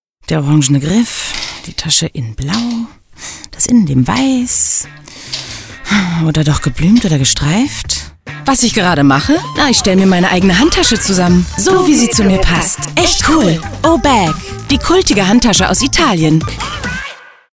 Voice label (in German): Werbung Fun